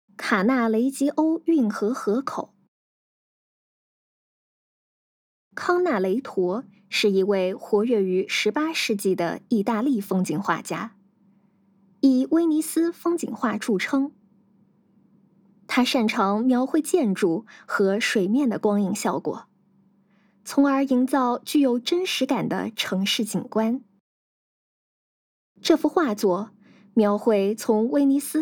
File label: Putonghua